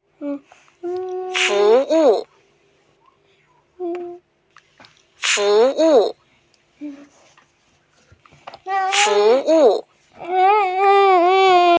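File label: "service", Mandarin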